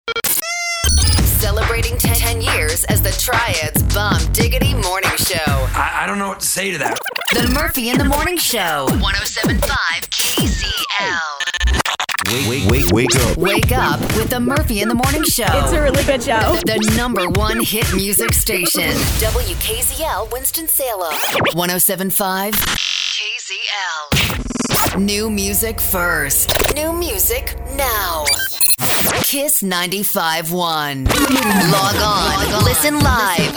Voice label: CHR Imaging